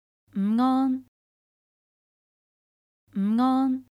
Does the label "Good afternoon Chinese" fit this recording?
Yes